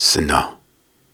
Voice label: Soft Syllables and Imaginary Words, Viking Vocal